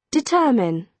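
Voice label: "determine", British English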